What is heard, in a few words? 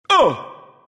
pain; stormspirit